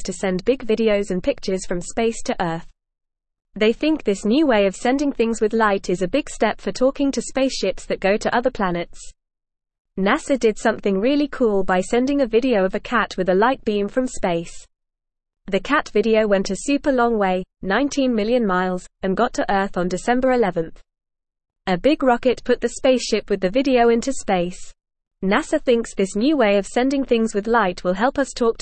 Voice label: English Newsroom Lower Intermediate FAST Reading